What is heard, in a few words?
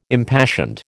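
"impassioned", American English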